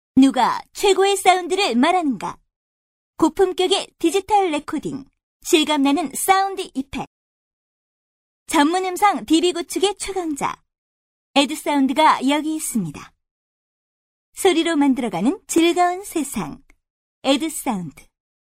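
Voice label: Korean, female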